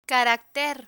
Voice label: "caracter", Spanish